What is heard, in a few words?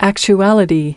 "actuality", us